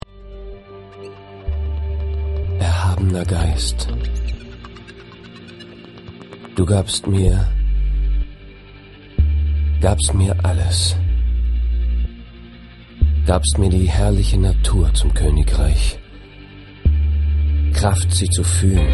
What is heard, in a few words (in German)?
hoerspiel; faust